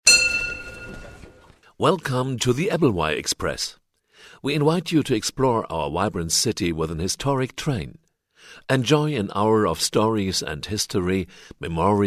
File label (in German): Englisch; Einleitung; Ebbelex; Entlang der Strecke